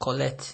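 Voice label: "collect", Nigerian Pidgin, male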